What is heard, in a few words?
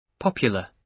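"popular", British English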